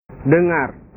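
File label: "dengar", Indonesian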